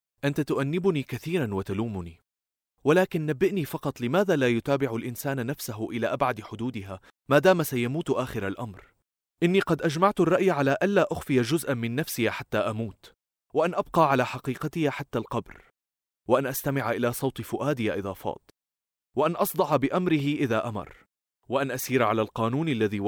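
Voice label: Arabic, Saudi Arabia, male, voice over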